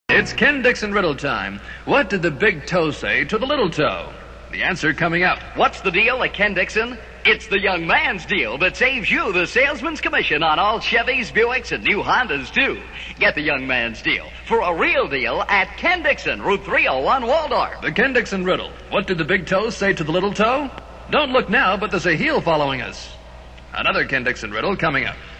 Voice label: spot; ken dixon chevrolet